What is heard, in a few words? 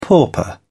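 "pauper", British English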